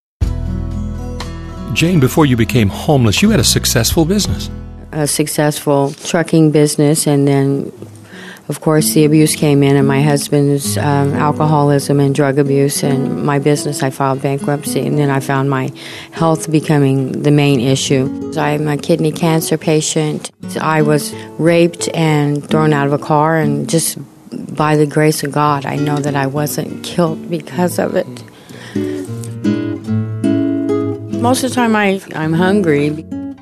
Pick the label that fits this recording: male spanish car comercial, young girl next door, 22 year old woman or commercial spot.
commercial spot